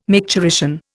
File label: "micturition", Indian